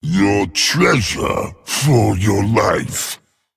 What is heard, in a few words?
Male Dragon Play